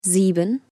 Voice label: Zahlen deutsch weiblich 7

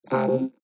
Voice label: zahlen englisch vocoder 2

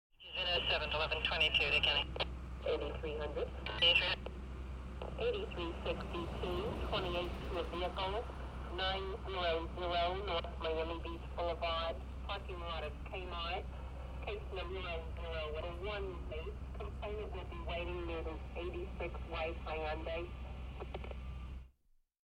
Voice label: Grossstadtgeraeusche Polizeifunk

